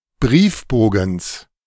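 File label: "Briefbogens" German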